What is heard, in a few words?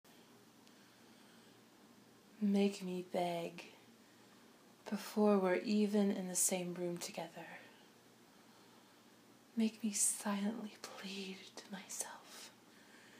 [F4M] Sensual Rough erotic poetry; Make Me Beg